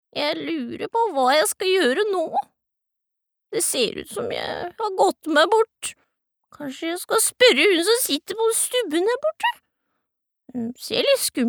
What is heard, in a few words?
no dub character, norsk kvinne